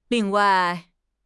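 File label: "ling4 wai4", Mandarin Chinese; HSK4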